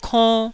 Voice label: "konn1", Taiwanese syllable